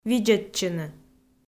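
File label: "видзӧдчыны", Komi, female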